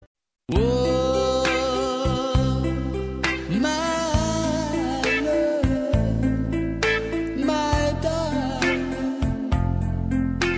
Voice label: SENSUAL MASC; Telemensagens; Sensuais; ROM SENSUAL

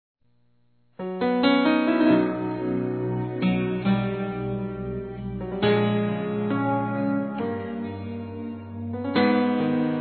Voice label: Aniversário Esposo Feminino, Telemensagens; Aniversários; Aniv Familiar

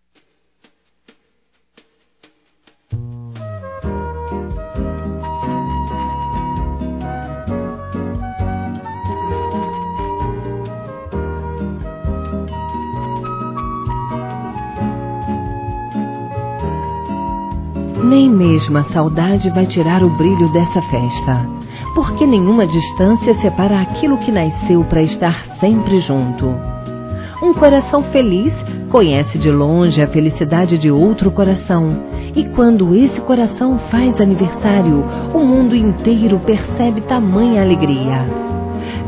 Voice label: Amor distante F, Telemensagens

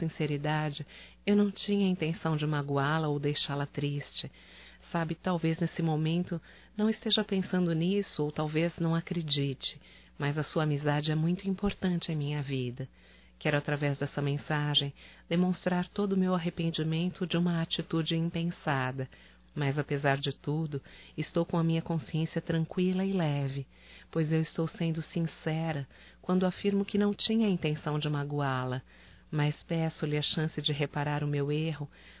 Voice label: DESCULPAS AMIGA (F); fem; Telemensagens 2017

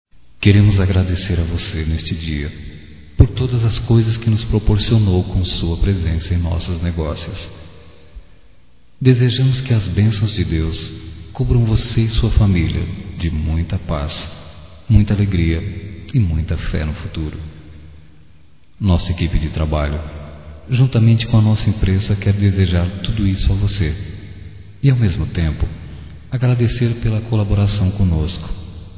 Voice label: Agradecimento ao Cliente Masculino; Convites e Agradecimentos; Telemensagens 2017

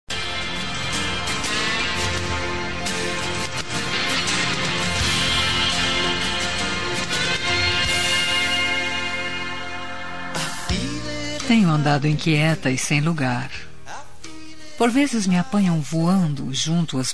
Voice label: ROM SAUDADE DE VOCE FEM; Telemensagens; Saudades

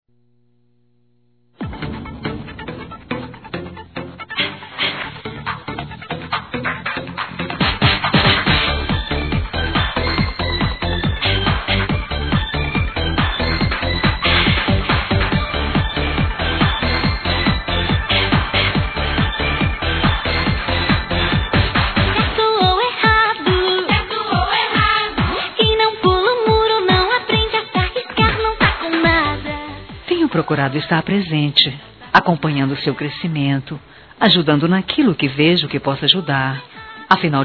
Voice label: Aniversário Afilhado Feminino; Aniversário Infantil; Telemensagens

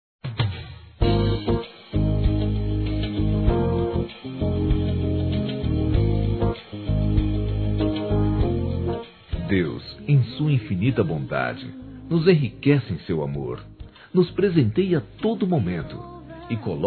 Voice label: PRIMO M; ANIV. Evangelico

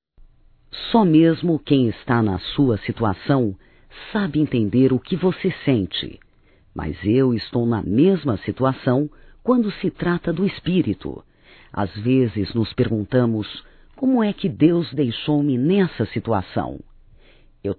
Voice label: OTIMISMO (F), Evangelico; Telemensagens 2017

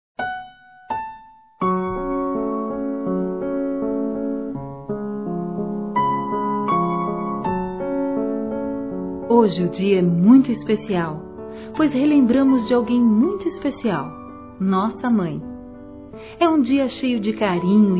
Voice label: mãe de consideração, F; Telemensagens 2017

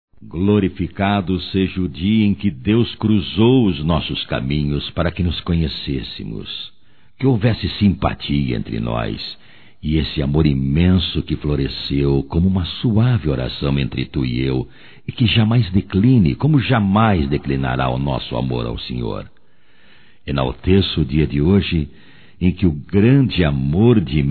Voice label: EVANG ANIVERSÁRIO DE NAMORADA - M; Telemensagens 2017; Aniversarios

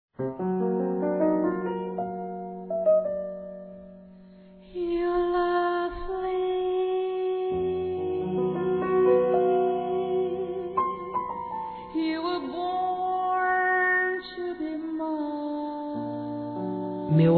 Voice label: DESCULPAS ROMANT. F, Telemensagens; fem; Telemensagens 2017

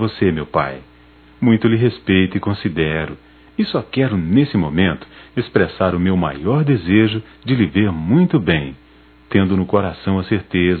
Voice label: Ano novo para mãe, masc; Natal e ano novo; Telemensagens 2017

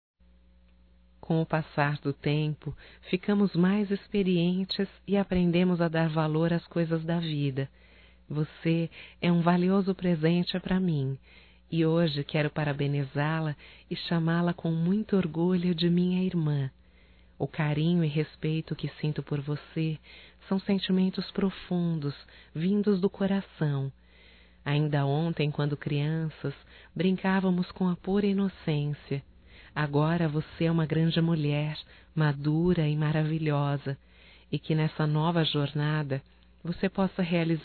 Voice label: ANIV IRMA, fem; Aniversarios; Telemensagens; Telemensagens 2017

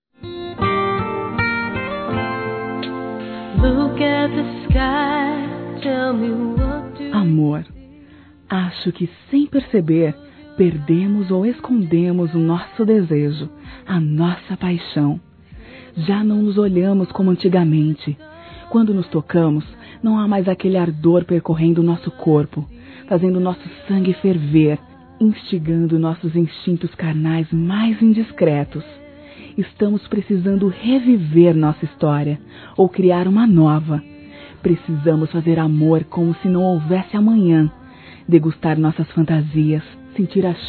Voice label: VAMOS SAIR DA ROTINA (F), Sensuais; Telemensagens; fem

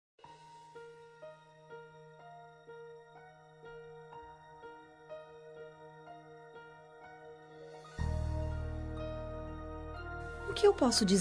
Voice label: MENSAGEM DO ANJO MENSAGEIRO, FEM; Telemensagens; Telemensagens 2017

